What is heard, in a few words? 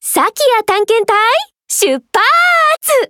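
start, Japanese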